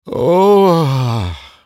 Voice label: dying, en US; Chronokeeper; Character Voices - English

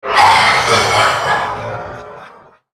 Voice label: spell cast effort; CyberNocturne; Character Voices - English

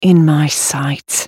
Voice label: ultimate effort; Caitlyn; Character Voices - English

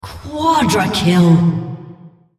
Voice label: female On Champion Quadra Kill

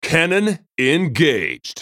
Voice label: spellcast effort; Jayce

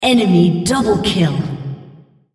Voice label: female, double kill

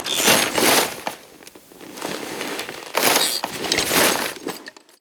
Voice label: hermit idle read; LoL; emitters map12

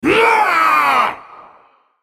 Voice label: ultimate effort, en US; Varus

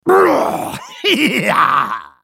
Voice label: spellcast effort; HyenaWarwick

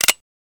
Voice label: pirate raise moral; LoL; gangplank

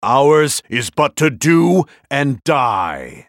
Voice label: attack, en US; JarvanIV

